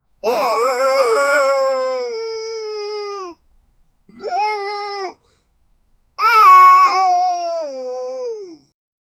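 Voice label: adorable cartoon character, mistake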